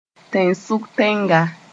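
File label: "tẽn-sʋk-tẽnga", Mooré; landlocked country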